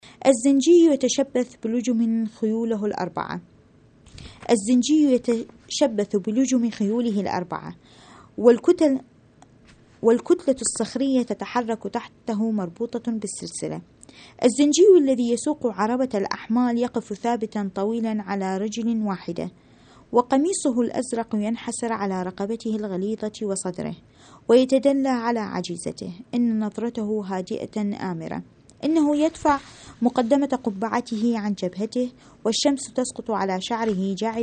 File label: arabic